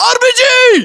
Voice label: threat rpg, battlechatter; cod modern warfare 2